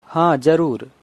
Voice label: "Haan, zaroor", Angika language; Yes, of course.

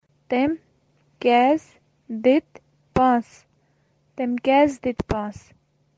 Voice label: Kashmiri; Why did she pay the money?; Taem kyeas dit paese?

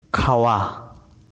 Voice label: "Khawa", Sylheti; Drink

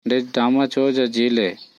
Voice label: Korku; Dich dama chojh jile?

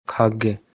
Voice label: "Khaage", Dogri; Will eat

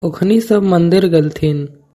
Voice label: Okhani sab mandir gelthin., Magahi

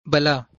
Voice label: "Bala", Tulu; Come

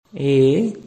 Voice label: "hai", Kangri; Is

